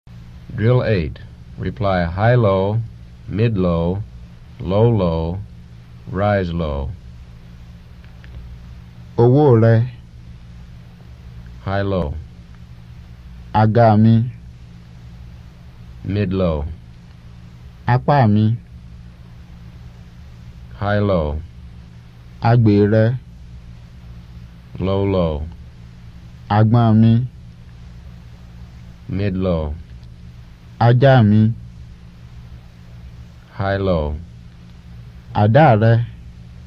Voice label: Yoruba; FSI; Basic

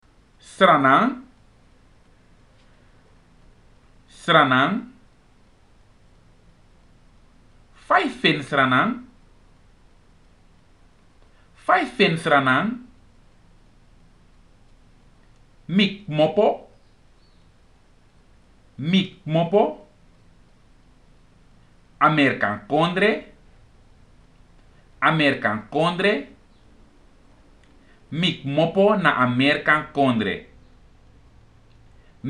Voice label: phrases, Sranan; PeaceCorps

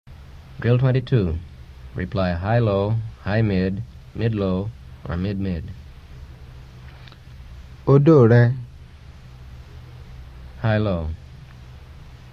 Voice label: Yoruba; FSI; Basic

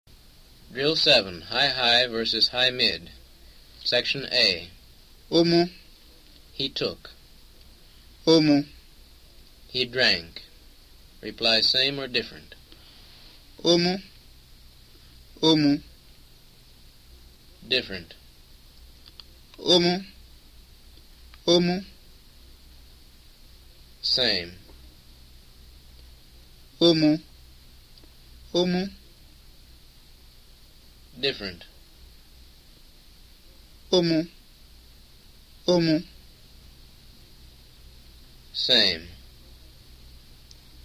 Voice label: Yoruba; FSI; Basic

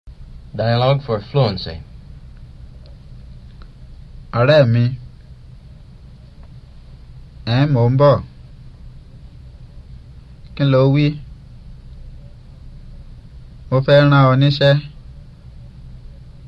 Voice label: Yoruba; FSI; Basic

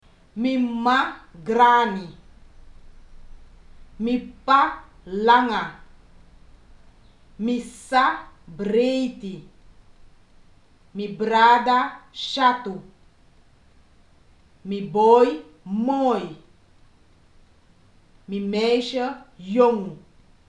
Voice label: family, Sranan; PeaceCorps

